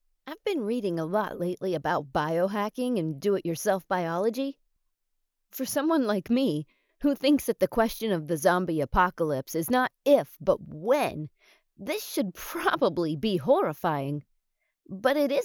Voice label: Sarcastic Podcast